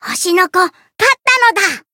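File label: win, Japanese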